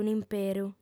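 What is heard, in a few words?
"un'imperu", Sardinian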